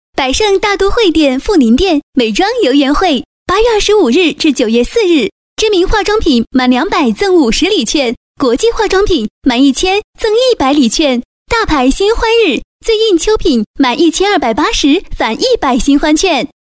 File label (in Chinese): 女 广告; 百盛美妆游园会